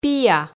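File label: "пиа", Komi, female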